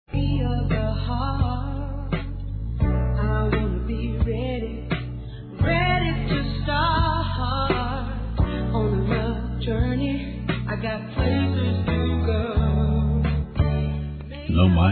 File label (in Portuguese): SAUDADE EX AMOR M; Telemensagens